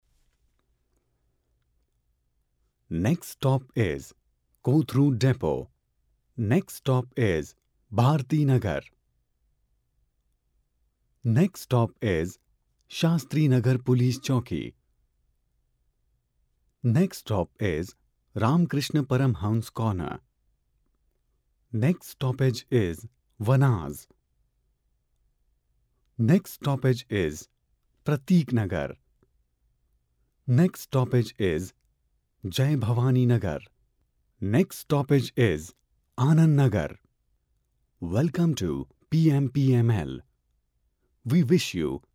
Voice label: English Male; PMPML